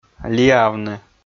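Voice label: "лиавны", Komi, male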